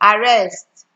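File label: "arrest", Nigerian Pidgin, female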